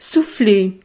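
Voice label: "souffler", French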